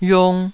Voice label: "yung2", Taiwanese